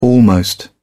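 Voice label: "almost", British English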